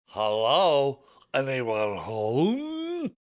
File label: Drunk Hello Home, Drunk, male; Talking Sound Effects